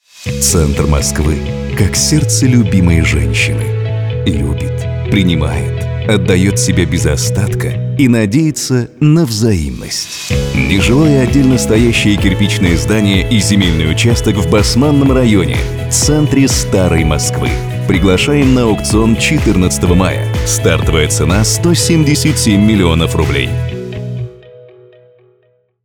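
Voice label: russian male commercial; voice demos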